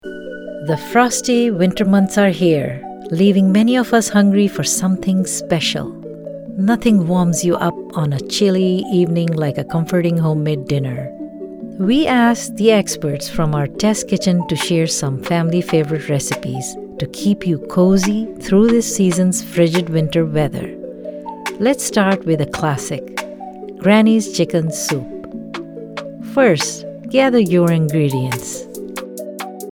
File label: english; Winter recipes